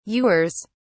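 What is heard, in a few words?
"ewers", British English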